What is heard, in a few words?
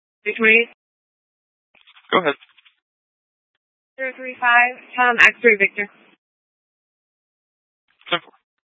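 Tempe police department, scanner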